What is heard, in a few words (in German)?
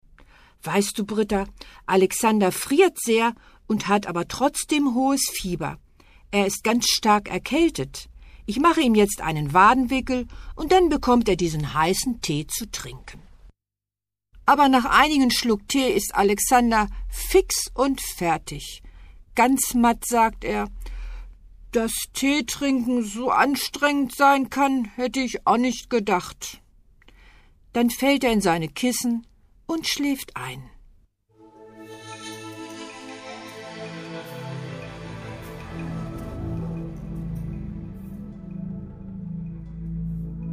Hoerbuch